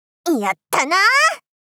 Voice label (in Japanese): "やったな～！" 少女×中音域